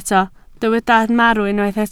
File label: "marw", Welsh